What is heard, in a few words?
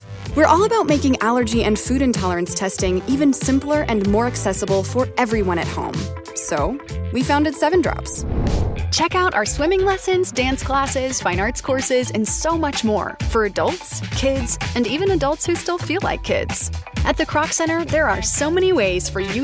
bright conversational; female voice; commercials, corporate narration